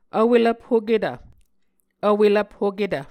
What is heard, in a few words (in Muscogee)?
"vwelvphoketv", Muscogee